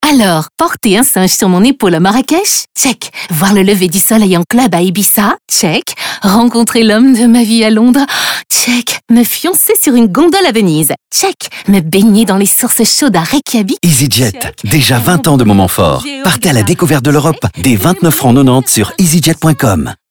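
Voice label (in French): spot, spots radio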